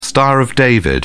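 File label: "star of david", British English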